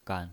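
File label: "gan", Mandarin Chinese, neutral tone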